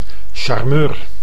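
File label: "charmeur", Dutch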